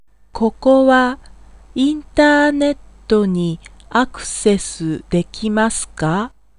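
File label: Japanese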